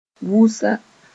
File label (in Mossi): "wusa", moore